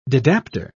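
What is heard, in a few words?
"didapper", British English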